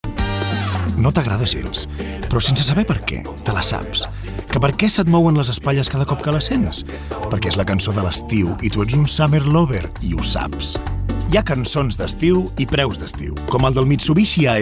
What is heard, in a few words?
catalan car comercial; ideasonora